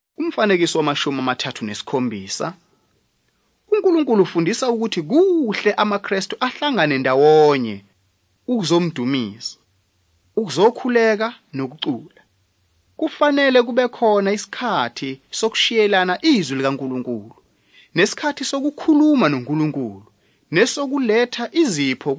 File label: zulu bible; good news